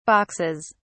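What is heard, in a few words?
"boxes", English, British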